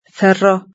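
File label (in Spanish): "cerró", Spanish; verb-conjugator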